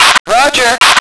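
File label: female roger; lmctf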